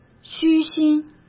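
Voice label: "虚心", Mandarin Chinese; chinese-flashcards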